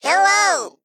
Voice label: Male Mech